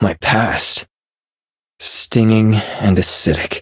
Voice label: touch, en; Cookie Run